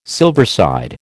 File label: "silverside", American